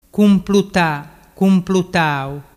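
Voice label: "cumplutatau", Vernazzese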